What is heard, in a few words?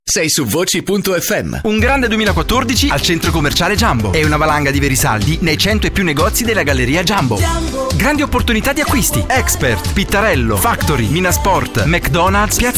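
Italian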